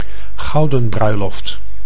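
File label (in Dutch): "gouden bruiloft", Dutch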